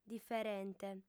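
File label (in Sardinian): "diferente", female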